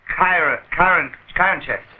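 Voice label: kiyruh kount karchek, gibberish; Voice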